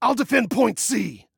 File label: DEFEND, Male